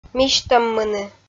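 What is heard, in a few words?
"мисьтӧммыны" Komi, female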